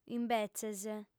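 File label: "imbetzes", Sardinian, female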